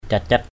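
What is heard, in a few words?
"cacat", Eastern Cham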